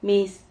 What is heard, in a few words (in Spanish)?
"mis", Silabas